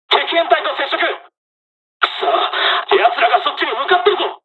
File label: dialog, dialogs japanese; War Thunder